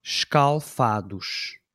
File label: "escalfados", Portuguese